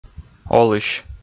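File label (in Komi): "олысь", Komi, male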